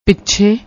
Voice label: "piche", Punjabi